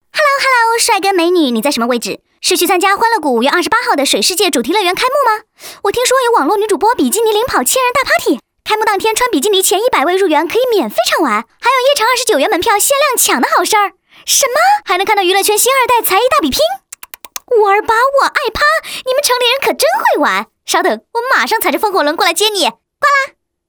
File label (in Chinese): 女, 搞笑; papi酱风; 水世界